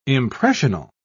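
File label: "impressional", British English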